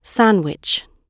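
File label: "sandwich" spoken, British English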